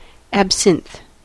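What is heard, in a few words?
"absinthe", American English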